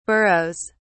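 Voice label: "burroughs", British English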